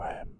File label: Mud man whisper